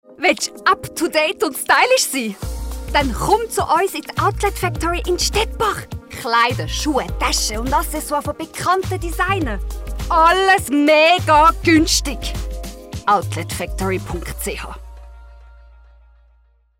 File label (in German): Werbung; Outletfactory